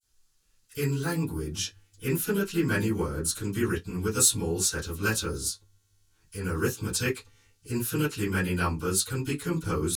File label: speech M azimuth 90 spread 60 incoherent EVD